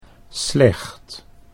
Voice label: "slecht", Dutch

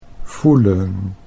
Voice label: "voelen", Dutch

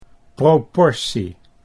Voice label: "proportie", Dutch

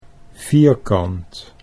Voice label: "vierkant", Dutch

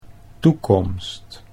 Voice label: "toekomst", Dutch

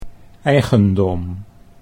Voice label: "eigendom", Dutch

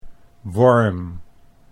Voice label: "worm", Dutch

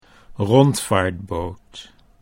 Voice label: "rondvaartboot", Dutch

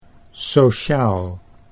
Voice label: "sociaal", Dutch

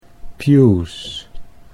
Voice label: "pews", English

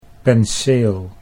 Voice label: "penseel", Dutch

